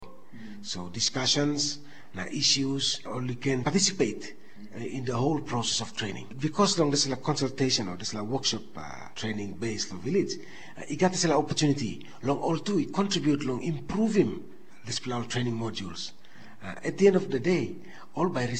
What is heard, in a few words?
Tok Pisin; Mama Graun